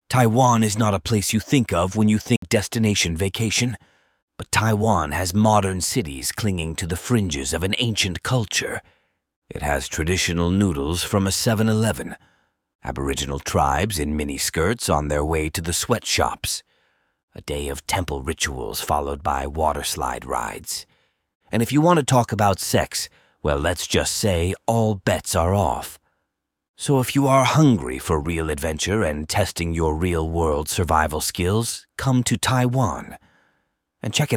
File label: male, fake sponsors; tour taiwan